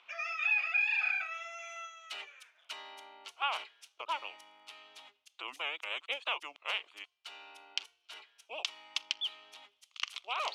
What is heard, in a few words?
Bunny Day Radio Commercial